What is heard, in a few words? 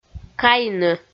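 "кайны" Komi, female; kpv audio dict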